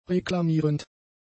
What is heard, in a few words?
"reklamierend", German; adjectives